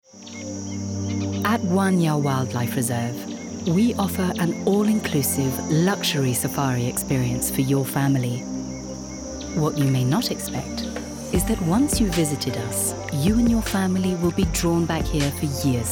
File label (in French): voix off femme uk